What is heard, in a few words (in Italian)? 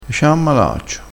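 "malaccio", crespinese dialettale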